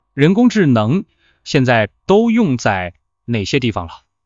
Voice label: zh male; KE-Omni